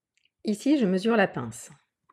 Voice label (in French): Voix off